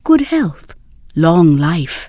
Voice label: Draenei Female Military Farewell